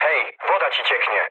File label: damaged water mood low, speech pol; War Thunder